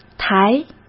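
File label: "台" Mandarin Chinese; hsk; chinese-flashcards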